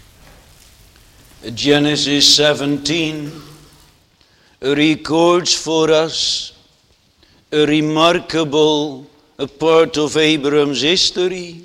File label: Sermon; heidelberg catechism